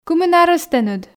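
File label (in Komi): кымын арӧс тэныд, Komi, female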